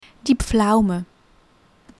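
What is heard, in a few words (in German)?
"die Pflaume", German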